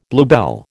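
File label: "bluebell", American English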